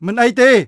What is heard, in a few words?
Khmer Order; Beyond the Sword; Units